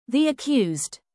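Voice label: the accused, British; Crime and punishment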